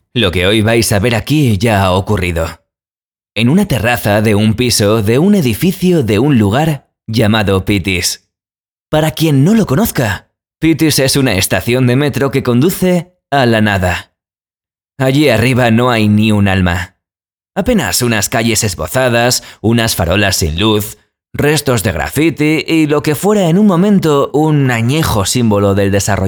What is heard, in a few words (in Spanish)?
VOZ EN OFF; OBRA TEATRO SIN VACACIONES